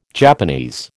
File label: "Japanese", American